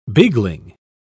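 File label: "beagling", English, British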